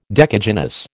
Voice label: "decagynous", American

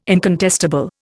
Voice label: "incontestable", Indian

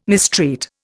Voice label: "mistreat", Indian

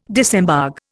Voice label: "disembogue", Indian

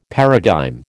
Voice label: "paradigm", American

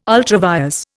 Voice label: "ultra vires", Indian

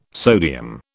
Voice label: "sodium", British

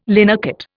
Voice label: "linocut", Indian

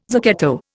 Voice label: "zucchetto", Indian

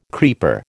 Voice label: "creeper", American

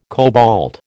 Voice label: "cobalt", American

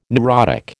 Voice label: "neurotic", American

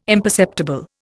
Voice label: "imperceptible", Indian

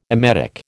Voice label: "emetic", American

